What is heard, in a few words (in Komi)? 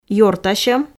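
"ёртасьӧм", female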